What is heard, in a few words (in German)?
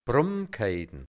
"Brummke(i)dn", Burgenland Dialekt hianzisch; Brunnenkette